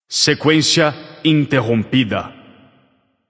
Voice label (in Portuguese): alert notify kill streak breaker, vox caster portuguese